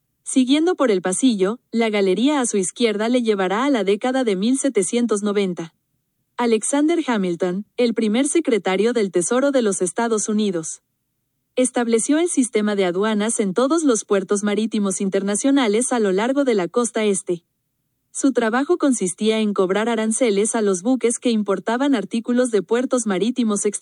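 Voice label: spanish, audio tour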